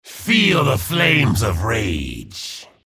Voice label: chatter positive; frag